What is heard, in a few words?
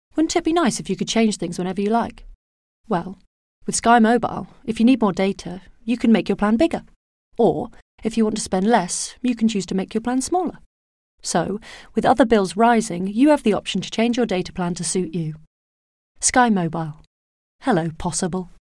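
British English Commercial; GoLocalise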